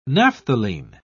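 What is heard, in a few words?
"naphthalene", British English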